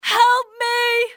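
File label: help me, english female; XBOX; civ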